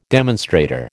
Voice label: "demonstrator", American